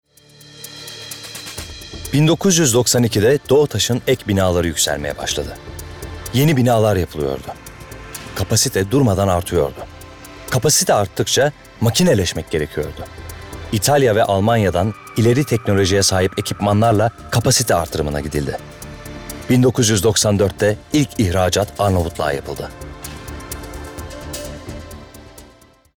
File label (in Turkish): Tanitim